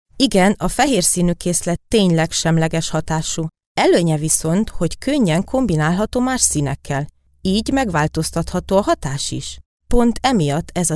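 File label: Hungarian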